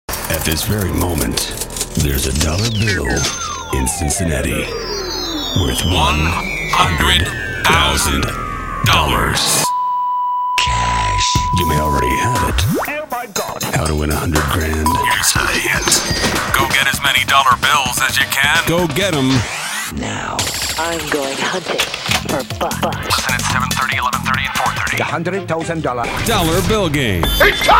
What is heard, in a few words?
Promo